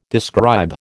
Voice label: "describe", American

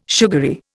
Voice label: "sugary", Indian

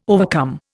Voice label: "overcome", Indian

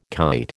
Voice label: "kite", American

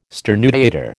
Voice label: "sternutator", American

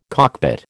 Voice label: "cockpit", American

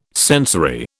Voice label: "sensory", British

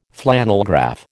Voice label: "flannelgraph", American

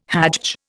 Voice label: "hajj", Indian

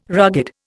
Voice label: "rugged", Indian

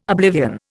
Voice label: "oblivion", Indian

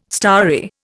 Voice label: "starry", Indian

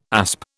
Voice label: "asp", British

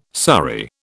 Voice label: "surrey", British

